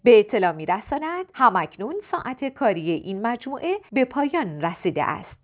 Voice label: voice women